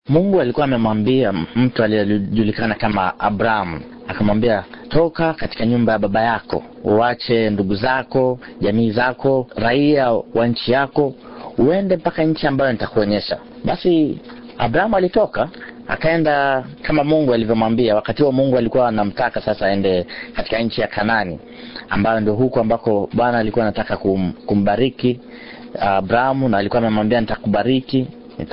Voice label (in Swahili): swahili, bible stories swahili audio bible